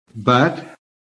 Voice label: "BUT", american